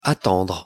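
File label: "attendre", French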